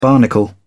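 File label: "barnacle", British English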